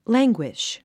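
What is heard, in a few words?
"languish", English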